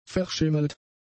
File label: "verschimmelt", German; adjectives